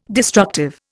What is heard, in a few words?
"destructive", Indian